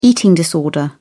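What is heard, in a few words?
"eating disorder", British English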